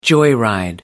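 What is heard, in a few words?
"joyride", British English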